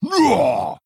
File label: Orc Male Attack